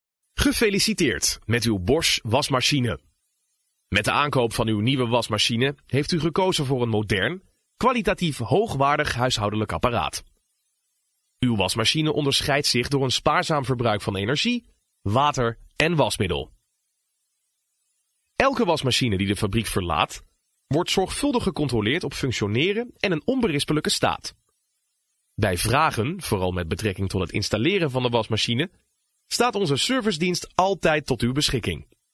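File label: Dutch, Netherlands, male, voice over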